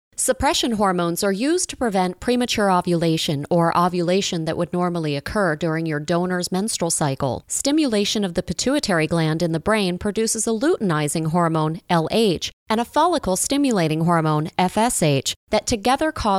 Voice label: Medical Narration

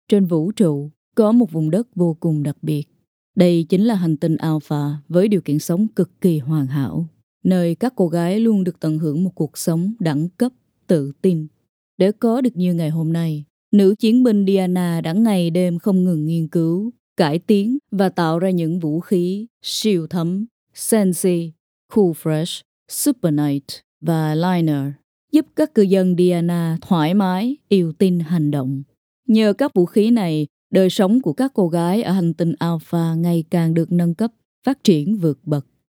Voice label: Deep Husky Heroic

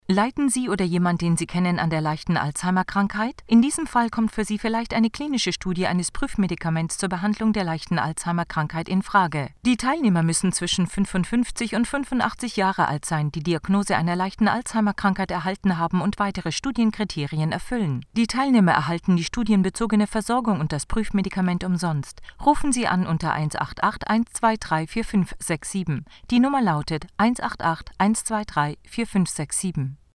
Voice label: GERMAN Radio; Quintiles; DAYBREAK; ALZ

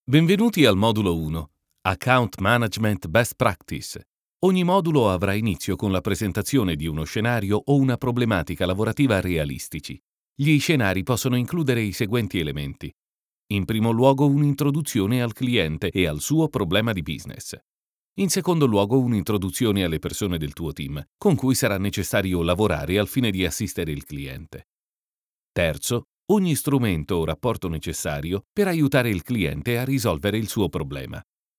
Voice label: ITA

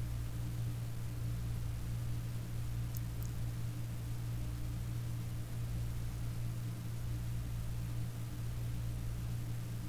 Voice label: Reconnect with real food promo

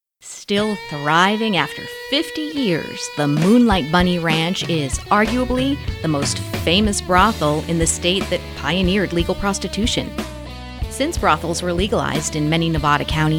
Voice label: Narration NO SLATE

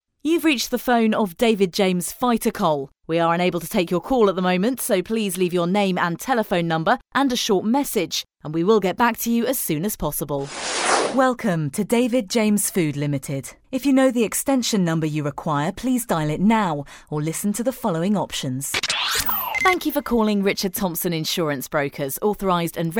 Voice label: TELEPHONY

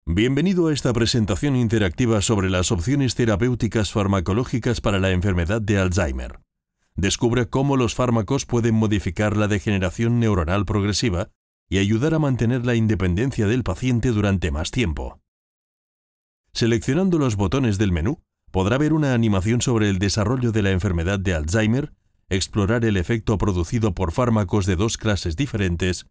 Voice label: e-learning medical

